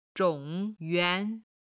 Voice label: "zhong3 yuan2", Chinese

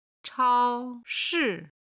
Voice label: "chao1shi4", chinese

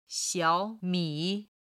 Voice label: "xiao3mi3", chinese

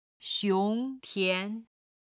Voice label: "xiong2 tian2", Chinese

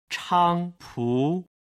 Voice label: "chang pu", Chinese

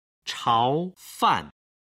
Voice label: "chao fan", Chinese

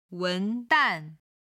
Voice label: "wen2 dan4", chinese

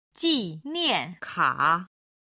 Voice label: "jinianka", chinese

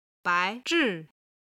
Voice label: "bai2zhi4", Chinese

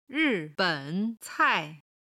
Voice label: "ri4ben3cai4", chinese

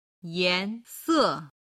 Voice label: "yan2se4", chinese

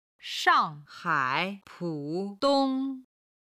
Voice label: "shang4hai3pu3dong1", chinese

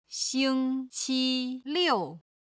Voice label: "xing1 qi1 liu4", chinese

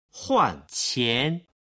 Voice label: "huan qian", Chinese

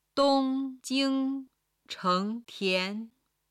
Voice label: "dong1 jing1 cheng2 tian2", Chinese

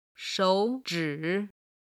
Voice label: "shouzhi", Chinese

